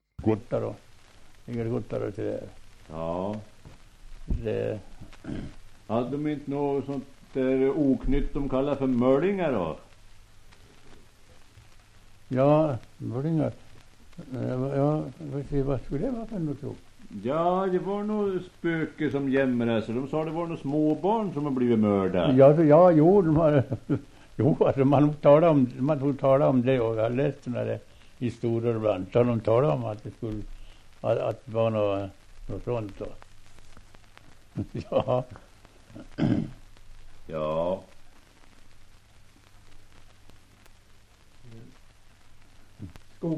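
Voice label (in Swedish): Västerfärnebo Västmanland, Svenska sagor, dialekter; Folkminnen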